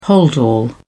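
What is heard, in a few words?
"holdall", British English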